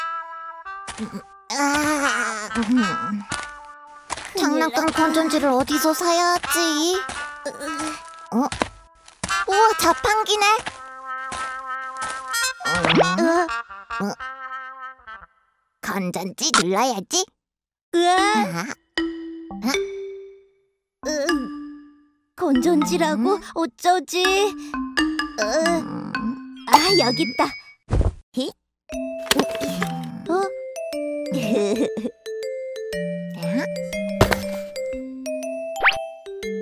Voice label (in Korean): 남아 귀여운; 다람쥐피피; 베이비버스